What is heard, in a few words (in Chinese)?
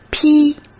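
"披" Mandarin Chinese; chinese-flashcards; hsk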